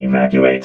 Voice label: "evacuate", robot vox

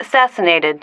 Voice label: "assassinated", female

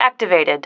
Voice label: "activated", female

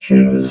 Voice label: "choose", robot vox

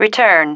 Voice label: "return", female

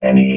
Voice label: "any", robot vox

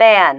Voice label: "ban", female

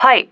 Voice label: "pipe", female

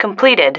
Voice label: "completed", female

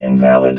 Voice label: "invalid", robot

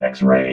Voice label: "xray", robot

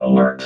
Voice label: "alert", robot

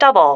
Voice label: "double", female

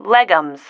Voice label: "leggums", female

